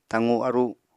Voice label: "taqu-aru", apatani